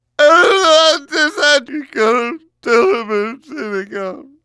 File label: Demoman gibberish